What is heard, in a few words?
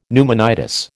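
"pneumonitis", American English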